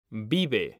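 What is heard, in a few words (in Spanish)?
"VIVE", Spanish, male; mekanta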